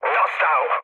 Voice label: aircraft destroyed mood med, speech pol